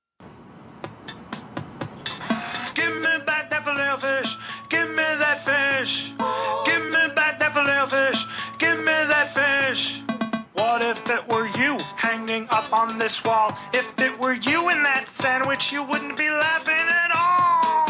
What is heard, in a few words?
Commercial; McDonalds